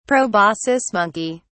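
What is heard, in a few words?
"proboscis monkey", British English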